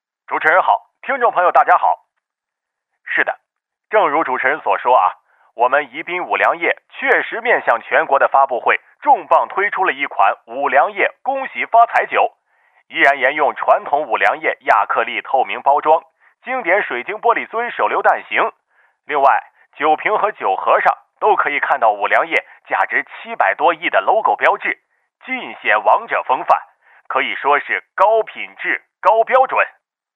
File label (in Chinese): 动画 角色 电台卖药电话效果试听, 男国